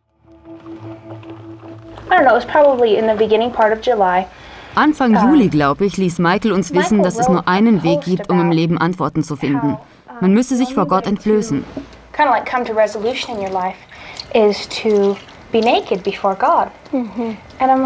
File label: Voice over